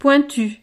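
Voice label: "pointu", French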